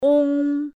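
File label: "ong", Chinese pinyin final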